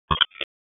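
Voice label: Police Spec Ops Tac, radio; Wake; p25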